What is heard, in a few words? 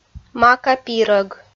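"мака пирӧг" Komi, female; kpv audio dict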